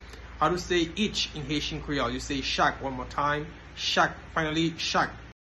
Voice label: "Chak" Haitian Creole pronunciation by a Haitian teacher